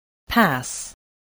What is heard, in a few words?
"pass", English, voice